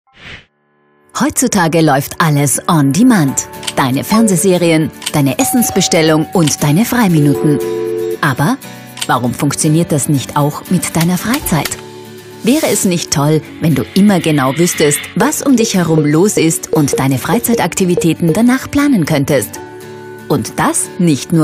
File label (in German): Sprecherdemo erklaervideo; Kangaroute; Hörbeispiele